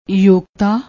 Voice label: "yogta", Punjabi